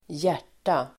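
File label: "hjärta", Swedish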